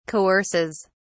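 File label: "coerces", English, British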